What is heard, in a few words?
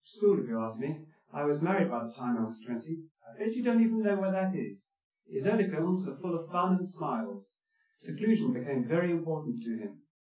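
male speech; SiSEC10; underdetermined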